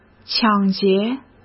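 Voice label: "抢劫", Mandarin Chinese; hsk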